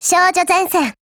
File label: TITLECALL, JP